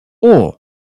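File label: "horse", British English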